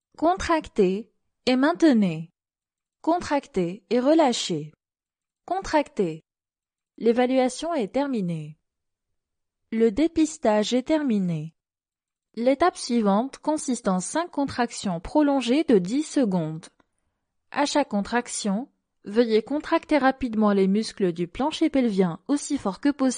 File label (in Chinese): 阿拉伯语女 外语 小语种 年轻